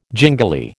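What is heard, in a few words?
"gingili", American English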